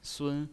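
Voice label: "sun", Mandarin Chinese pinyin, first tone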